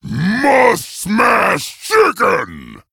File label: Orc